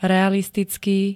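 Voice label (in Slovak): "realistický", Slovak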